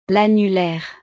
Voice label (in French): "l annulaire", frances; partes del cuerpo